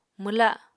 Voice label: "mwla", Apatani

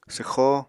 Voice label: "swxó", Apatani

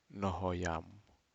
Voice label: Aneityum fan

